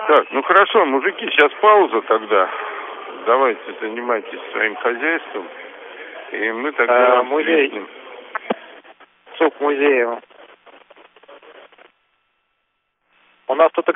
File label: Space to Ground; Expedition 39